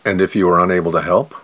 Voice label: question fast